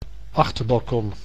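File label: "achterbalkon", Dutch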